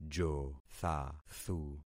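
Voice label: "jothathu", concatenated syllables; Affixing experiment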